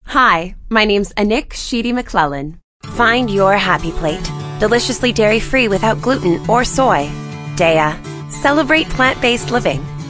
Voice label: Commercial Voice; Demo Reel